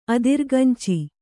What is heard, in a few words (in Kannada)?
"ಅದಿರ್ಗಂಚಿ", Kannada